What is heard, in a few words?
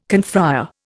"confrère", Indian